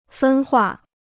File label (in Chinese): "分化", Chinese